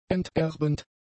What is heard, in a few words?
"enterbend", German; adjectives